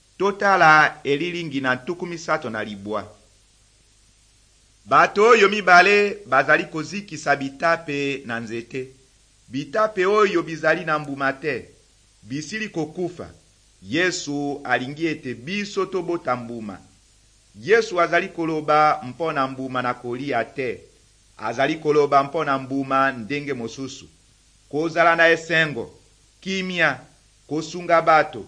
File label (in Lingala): good news lingala west, drama